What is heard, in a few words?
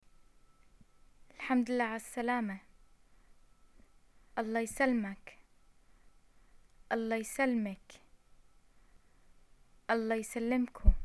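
greet arrival, arabic, Jordanian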